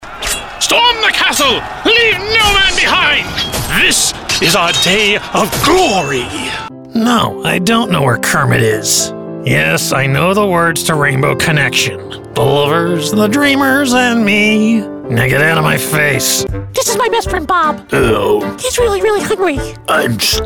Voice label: Character